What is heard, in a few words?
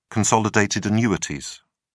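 "consolidated annuities", English, British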